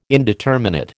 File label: "indeterminate", American